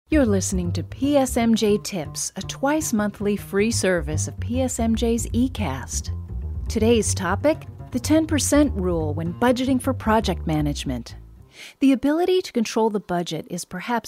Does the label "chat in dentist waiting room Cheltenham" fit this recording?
No